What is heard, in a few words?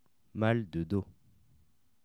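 "mal de dos", French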